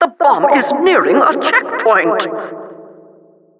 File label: Announcer cart defender warning